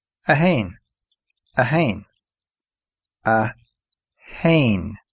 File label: one irish